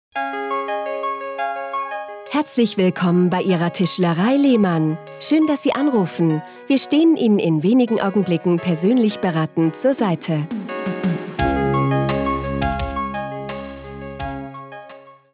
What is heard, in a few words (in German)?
Telefonansagen; Tischlerei Lehmann; AVM